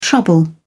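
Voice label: "trouble", British English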